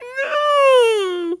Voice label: Human Male No Whinny No

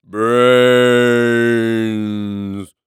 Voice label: Horror Scary Undead Moan Brains

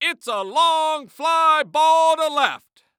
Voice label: Sports Human Voice Male Baseball Announcer; It's A Long Fly Ball To Left

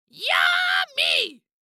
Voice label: Cartoon Voice Yummy Scream

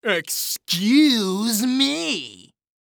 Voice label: Cartoon Voice Excuse Me Rude

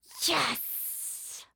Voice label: Human Female Yes

